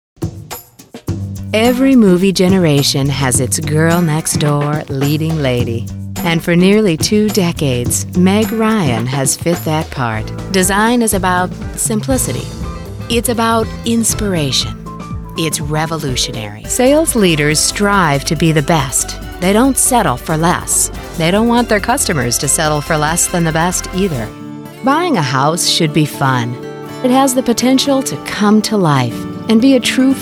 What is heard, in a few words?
NARRATION